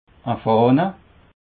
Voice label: "fahne", Alsace

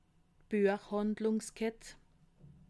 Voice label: "buechhandlungskett", alsace

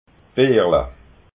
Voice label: "beerla", alsace

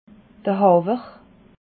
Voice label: "hawer", alsace

